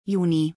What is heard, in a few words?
June in German